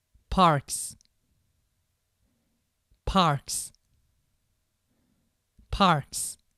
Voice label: parks, pronunciation